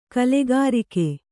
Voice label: "ಕಲಿಕಾರಕ", Kannada